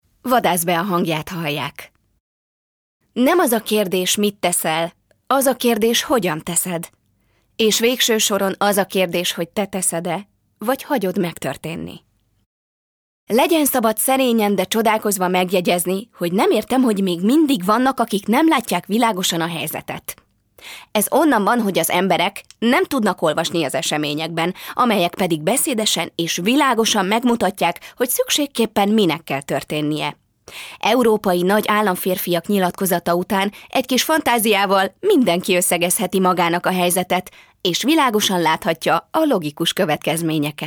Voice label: hungarian f narration; voice demos